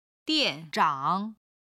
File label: "dian4 zhang3", Chinese